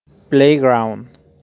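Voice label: "Playground", pronunciation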